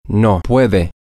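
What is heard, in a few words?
Spanish, male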